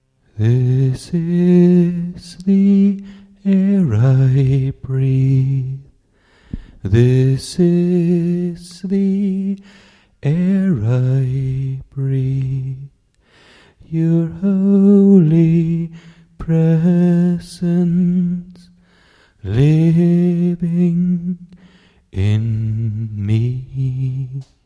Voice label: subtle chorus vocal bypass